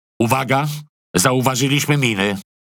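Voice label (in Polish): CHAT MINE WARNING, Polish; PVE; World Of Warships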